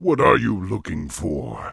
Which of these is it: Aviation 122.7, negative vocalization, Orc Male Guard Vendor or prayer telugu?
Orc Male Guard Vendor